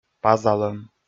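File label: "пазалӧм", Komi, male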